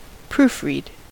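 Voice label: "proofread", US English; pronunciations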